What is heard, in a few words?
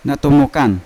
"natomokan", Yawa; teeth; unat